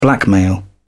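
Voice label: "blackmail", British English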